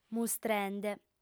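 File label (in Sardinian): "mustrende", female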